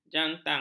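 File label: "jantang", Malay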